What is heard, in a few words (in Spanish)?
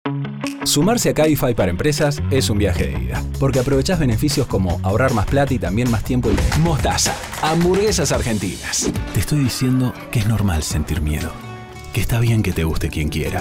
comerciales rioplatenses, Comerciales Español Rioplatense